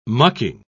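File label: "mucking", British English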